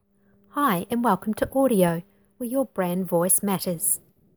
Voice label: Female Voice; Ordio